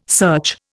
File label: "search", Indian